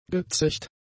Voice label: "gezecht", German; adjectives